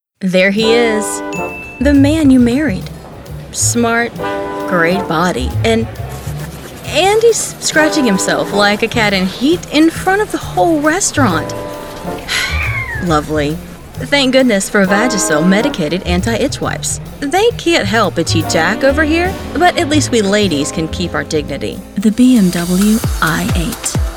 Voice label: Commercial Demo

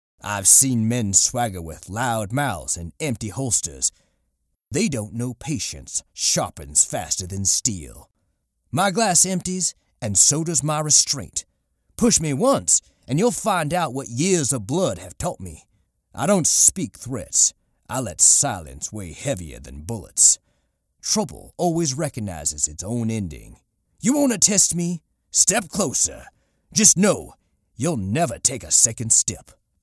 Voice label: CHARACTER COWBOY

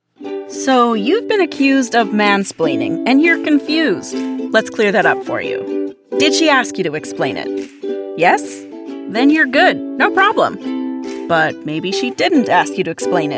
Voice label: Explainer

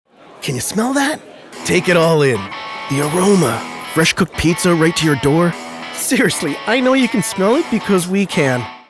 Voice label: Commercial Pizza; Dominos

